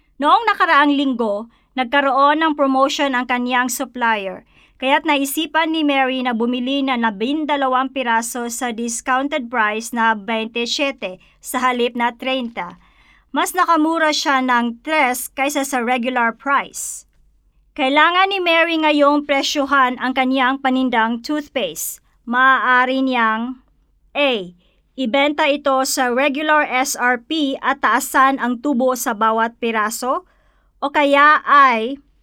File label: female Tagalog